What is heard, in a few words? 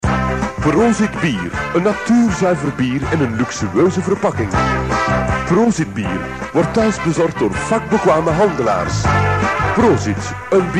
commercial